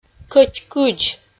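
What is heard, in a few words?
"кӧть кыдз" Komi, female; kpv audio dict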